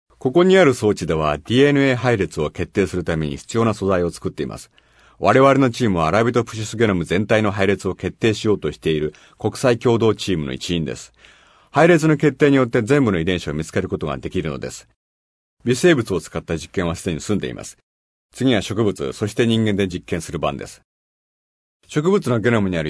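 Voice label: japanese male voice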